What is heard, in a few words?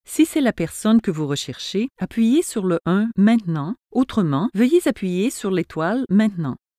Directory Instuctions, canadian